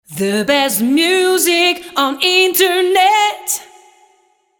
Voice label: The Best Music on Internet (zonder SFX), jingles